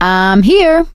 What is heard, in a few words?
scenario join, survivor voice